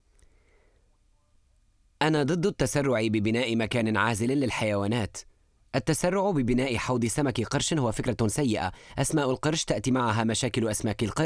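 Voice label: arabic male narration; voice demos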